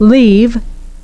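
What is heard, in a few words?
"leave", English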